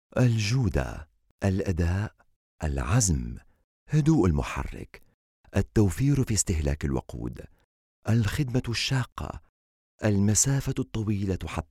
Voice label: Arabic SAUDI Narration Voice Overs